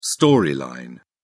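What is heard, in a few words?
"storyline", British English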